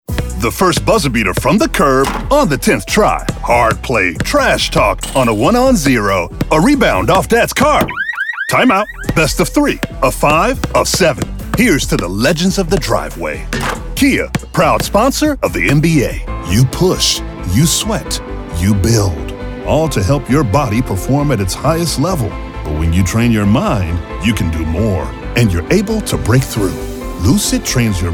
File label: Vo Commercial